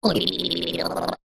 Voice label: cartoon voice character head shake dazed high pitched